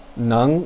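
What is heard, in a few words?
"neng2", Chinese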